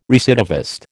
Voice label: "recidivist", American